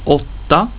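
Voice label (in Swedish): "åtta", Swedish; getal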